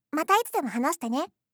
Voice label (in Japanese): "mata hanashite ne", voice, game; kiku